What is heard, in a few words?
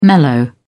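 "mellow", British English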